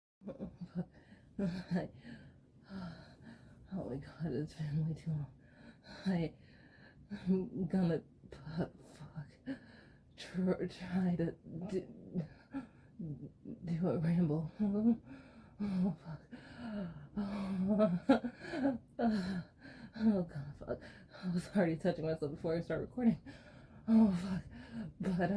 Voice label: Post-Gym, Ramblefap, Real wet Sfx, whimpers; F4M; F4A